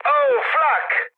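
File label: Italian damaged aa mood med, speech; War Thunder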